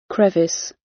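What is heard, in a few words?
"crevice" British; Flora and fauna, agriculture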